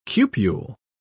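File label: "cupule", British English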